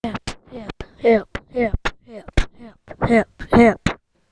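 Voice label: "hip", spoken word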